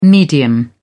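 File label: "medium", English, British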